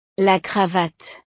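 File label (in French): "la cravate", French; ropa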